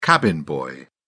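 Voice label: "cabin boy", British English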